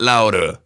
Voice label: Vocals

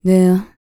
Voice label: FEM. SPOKEN; R&B Dance

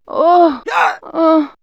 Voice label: Men Women Animal Magic, vox; X-Static Goldmine

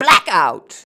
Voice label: "black out", shouts; Best Service; Hyper Dance

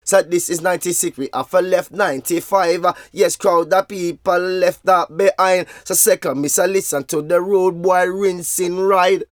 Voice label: Vocals; Jungle Frenzy 1

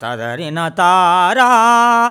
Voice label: MAN VX, Indian Traditions; USB Soundscan vol.45; AKAI

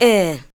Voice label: ADLIBS 90; Ueberschall; Jam Box

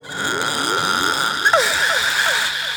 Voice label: Female, Vocals, Jungle

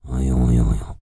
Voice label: TUVAN TALK; Spectrasonics Vocal Planet CD5; World Voices

